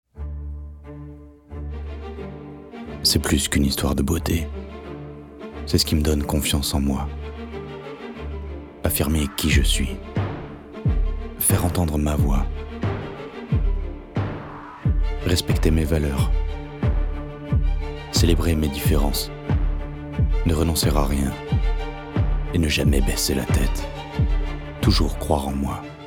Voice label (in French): Publicité; L'Oréal